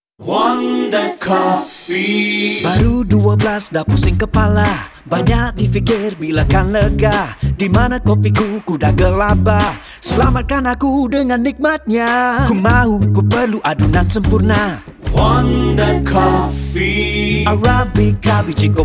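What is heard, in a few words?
Malay; WONDA COFFEE Branding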